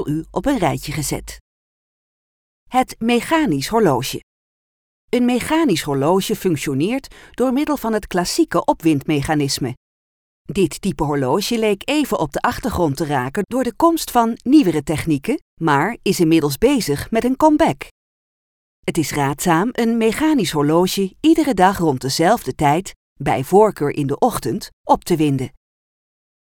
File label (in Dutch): documentaire horloges